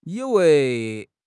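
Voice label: "yi3 wei2", Mandarin Chinese; HSK4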